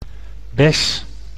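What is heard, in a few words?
"bes", Dutch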